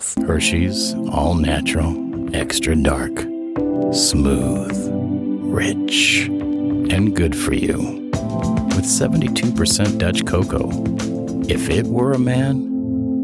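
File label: Commercial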